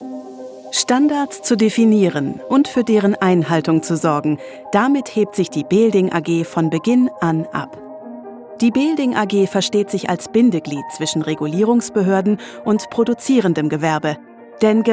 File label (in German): Image Corporate serioes